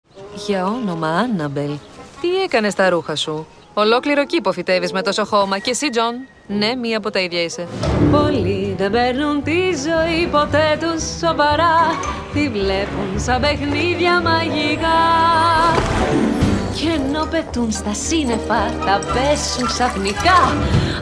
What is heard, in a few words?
Mary Poppins speaking singing; Mary Poppins Returns